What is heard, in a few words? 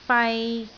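"fai3", Cantonese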